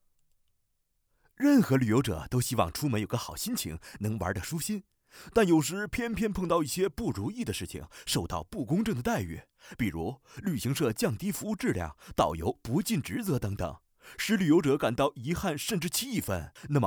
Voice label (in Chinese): 男国 宣传片 病毒; 飞碟说风格